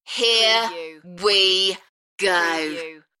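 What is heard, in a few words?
British female Here we go; beanstalk audio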